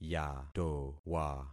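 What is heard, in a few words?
"yatowa", concatenated syllables; Affixing experiment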